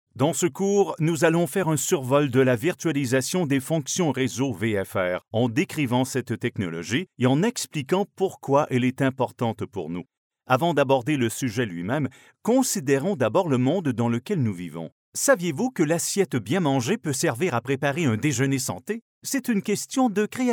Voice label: french canadian male e-learning

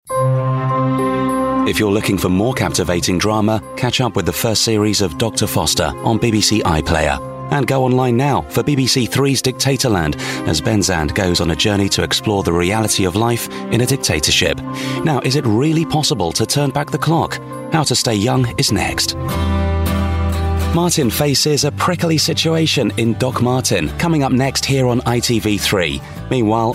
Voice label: continuity

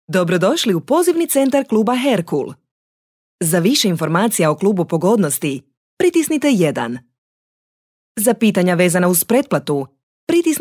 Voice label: croatian voice telephone

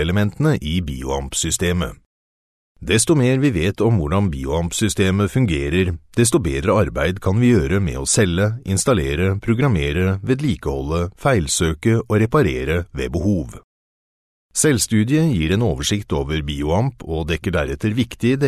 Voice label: norwegian corporate

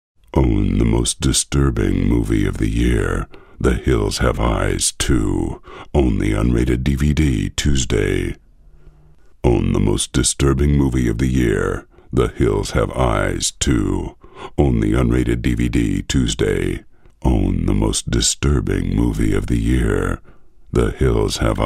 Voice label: american; hills have eyes 2

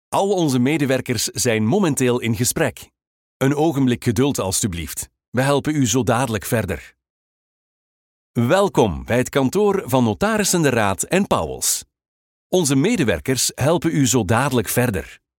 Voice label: flemish voice telephone

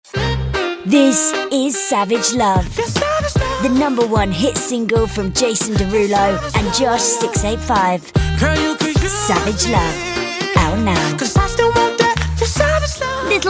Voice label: music ads

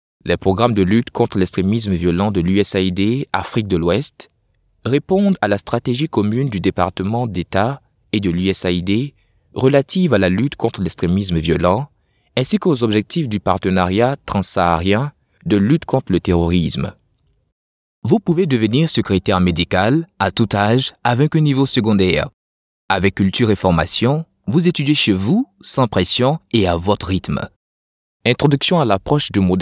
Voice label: african french voice elearning

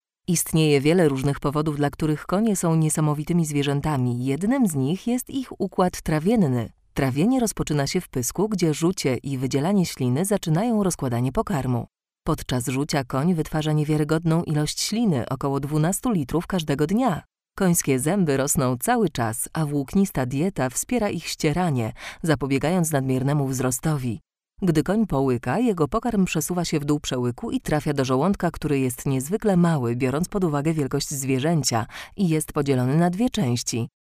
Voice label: polish female corporate

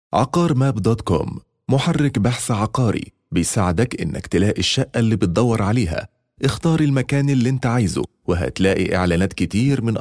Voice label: arabic male, egyptian accent

